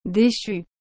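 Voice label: "dechu", French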